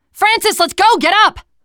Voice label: revive friend loud, teen girl; player; survivor; voice

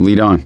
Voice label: lead on, voice; gambler

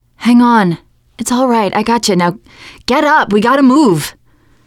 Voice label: revive friend, teen girl; player; survivor; voice

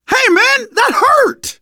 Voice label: friendly fire, manager, survivor, voice; Left 4 Dead 2; left4dead2 dlc2

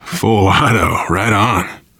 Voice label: take submachine gun, voice, biker; Left 4 Dead 2; left4dead2 dlc3; survivor

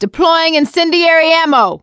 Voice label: incend ammo, voice; survivor; producer

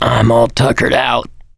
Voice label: going to die, survivor voice mechanic

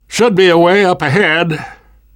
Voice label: world hospital, namvet, survivor voice

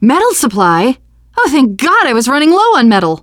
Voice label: metal supply, teengirl

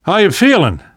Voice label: revive friend, namvet, survivor voice; Left 4 Dead 2; left4dead2 dlc2

